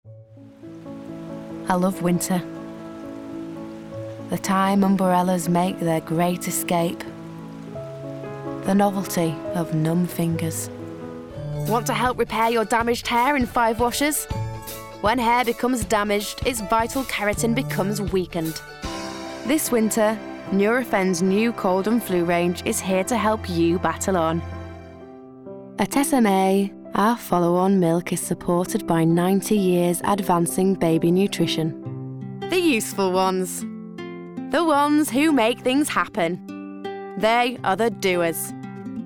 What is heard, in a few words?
Commercial Montage